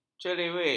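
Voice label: "cerewet", Malay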